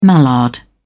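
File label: "mallard", English, uk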